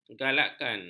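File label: "galakan", Malay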